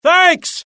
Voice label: alert, UK; mohaa; dialogue